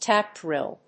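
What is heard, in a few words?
"tap drill", English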